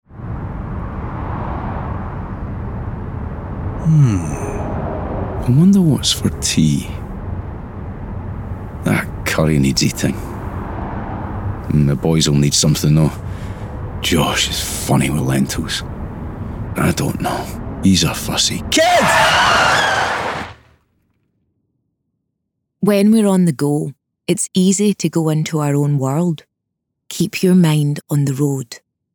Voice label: Distractions Radio Driver, radio; Distraction campaign assets - Road Safety Scotland; Lentils